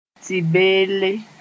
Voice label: "tɩ-beelle", Moore; pill

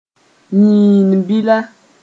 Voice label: "yĩin-bila", moore

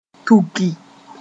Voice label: "tuki", moore

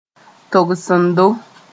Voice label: "togsendo", Mooré

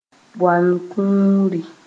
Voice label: "bõan-kũuri", Mooré

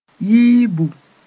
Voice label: "yiibu", Mooré

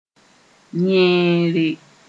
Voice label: "yẽere", moore

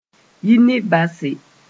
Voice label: "yi n base", mos-en; to leave

